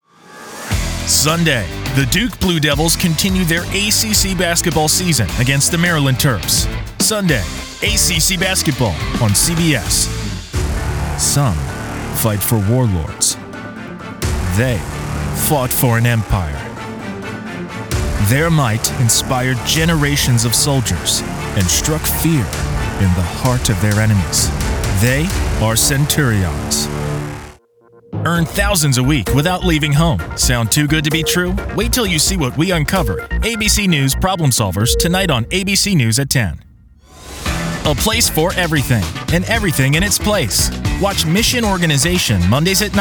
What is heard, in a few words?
Promo